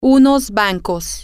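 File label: "some banks", Spanish, female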